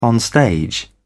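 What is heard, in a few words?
"onstage", British English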